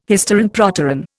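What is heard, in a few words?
"hysteron proteron", Indian English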